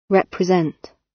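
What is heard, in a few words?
"represent", British English; Crime and punishment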